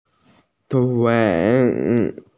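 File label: "tui3", Mandarin Chinese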